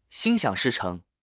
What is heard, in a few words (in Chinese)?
心想事成, Mandarin